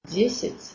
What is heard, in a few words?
"10" Belarusian